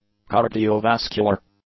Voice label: "cardiovascular", English; adjectives

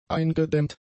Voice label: "eingedämmt", German; adjectives

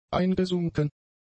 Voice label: "eingesunken", German; adjectives

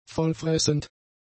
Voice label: "vollfressend", German; adjectives

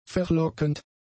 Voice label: "verlockend", German; adjectives

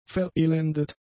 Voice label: "verelendet", German; adjectives

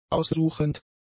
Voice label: "aussuchend", German; adjectives

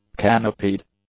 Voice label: "canopied", English; adjectives

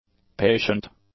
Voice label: "patient", English; adjectives

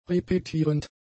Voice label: "repetierend", German; adjectives

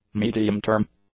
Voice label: "medium-term", english; adjectives

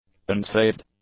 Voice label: "unsaved", english; adjectives

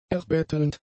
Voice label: "erbettelnd", German; adjectives

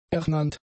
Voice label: "ernannt", German; adjectives

